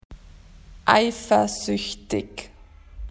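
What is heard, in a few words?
"jealous", Australian English; PictureDictionary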